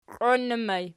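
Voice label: "qioinnur" ergative plural, Archi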